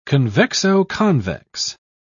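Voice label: "convexo-convex", British English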